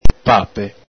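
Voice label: "pape", Venetian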